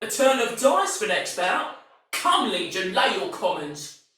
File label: Patrol Spoken Soldier Female; Battle; Barks; Dialog; Sinecure Soldier VIP Female A